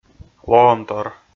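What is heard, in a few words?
"лоӧмтор", Komi, male; kpv audio dict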